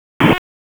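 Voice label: Police District Dispatch, p25; wake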